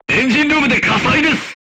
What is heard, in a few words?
voice message fire in tank, crew tanks japanese